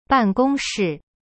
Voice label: "办公室 ban gong shi", Chinese; cyberchinese